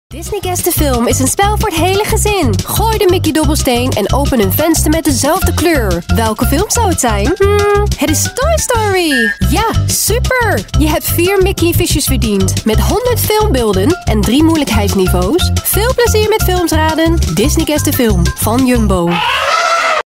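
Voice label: Dutch female TVC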